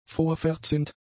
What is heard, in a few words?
"vorverzinnt", German; adjectives